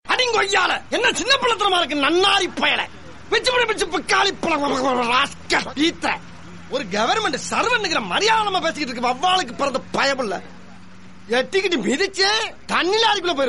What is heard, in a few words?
funny dialogue tamil; vadivelu